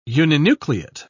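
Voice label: "uninucleate", British English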